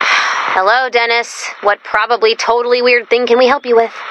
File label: Sigh Hello; Canny; Dennis